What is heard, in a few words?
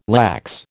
"lax", American